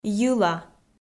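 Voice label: "йыла", Komi, female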